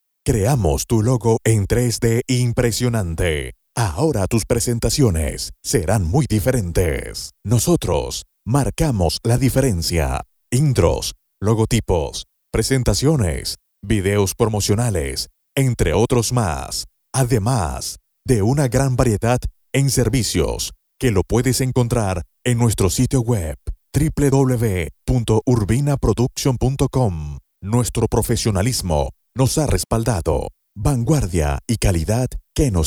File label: Spanish - Castilian